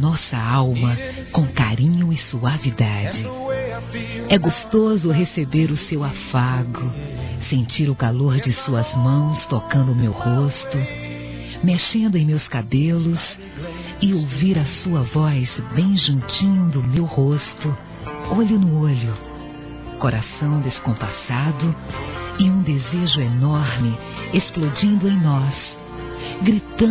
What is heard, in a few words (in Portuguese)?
Bom Mesmo é Estar ao Lado, fem; Telemensagens; Sensuais